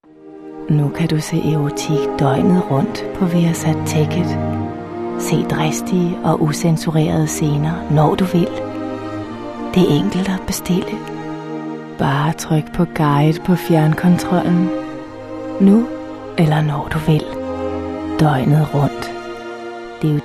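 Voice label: Danish; Danish, Scandinavian, Male, Home Studio, 20s-30s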